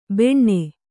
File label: "ಬೆಣ್ಣೆ", Kannada